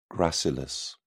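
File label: "gracilis", English, British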